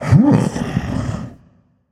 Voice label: confused; smite; thor